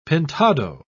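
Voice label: "pintado", British English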